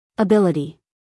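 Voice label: "ability", American English, female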